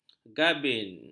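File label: "gabin", Malay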